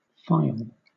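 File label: "phial", British English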